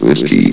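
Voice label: "whiskey", male synthesized announcer voice; hlvox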